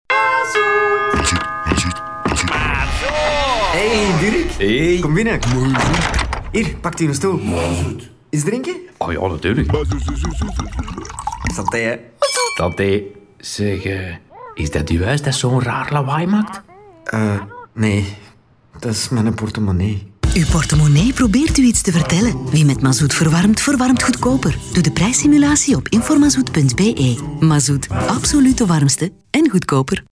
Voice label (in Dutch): neutraal; neutral; Informazout